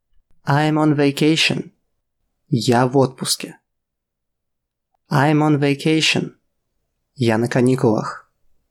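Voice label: Russian I am on vacation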